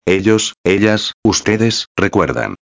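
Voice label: "recuerdan", Spanish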